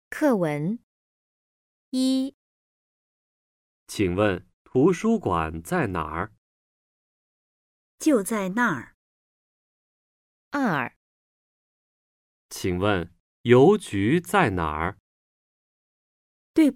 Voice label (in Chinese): 课文; 第五课 图书馆在哪儿？; 请问， 图书馆在哪儿？; 就 在 那儿。